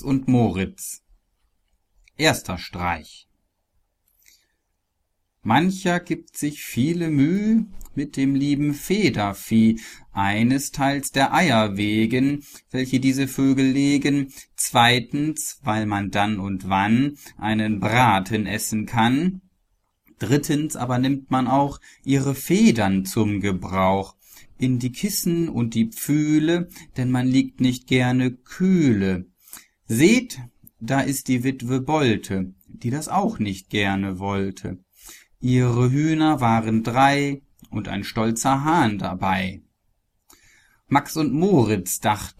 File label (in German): dialekte Hochdeutsch